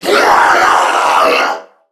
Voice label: Death Crewmen, en; Warframe; Corpus Crewmen; Crewman B; Dialog Barks Battle